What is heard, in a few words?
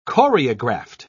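"choreographed", British English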